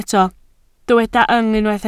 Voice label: "yng", Welsh